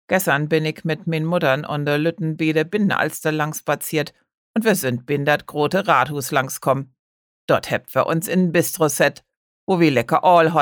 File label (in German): Stimmprobe Hamburgisch